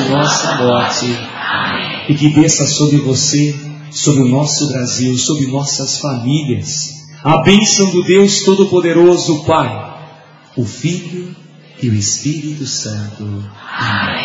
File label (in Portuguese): Ave Maria Oracao; Padre Marcelo Rossi